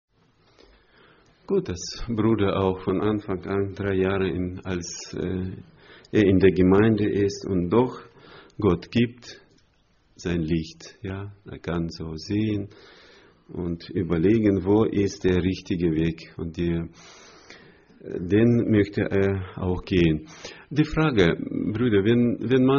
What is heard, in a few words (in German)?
ansage; Братское (Германия, 04-25)